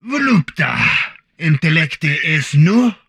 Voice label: Humanoid male; Stellaris